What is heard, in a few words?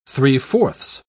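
"three-fourths", British English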